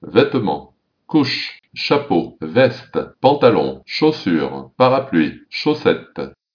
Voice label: french; clothes

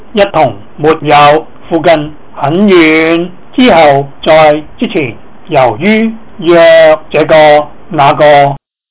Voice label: cantonese words

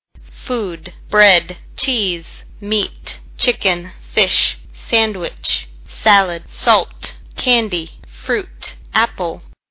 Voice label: english; food

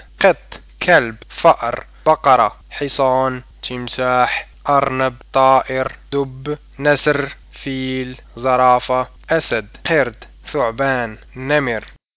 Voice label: arabic; animals

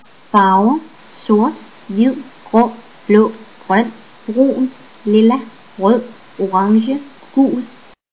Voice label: danish; colors

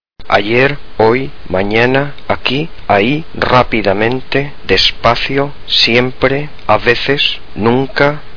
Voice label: spanish time

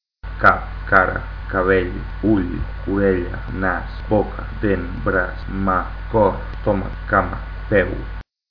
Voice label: catalan

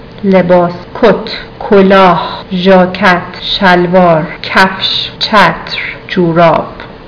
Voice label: Persian clothes

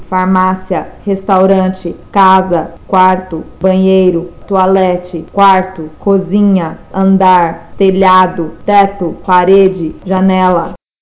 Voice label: portuguese places

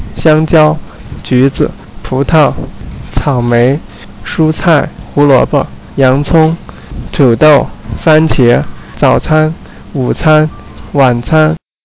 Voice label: chinese; food

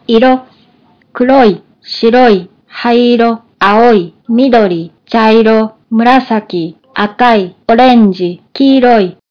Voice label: japanese colors

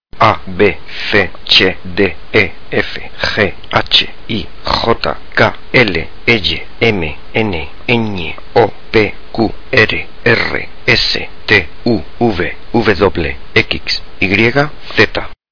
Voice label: spanish alphabet

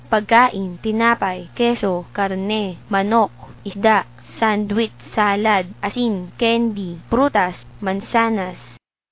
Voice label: filipino; food

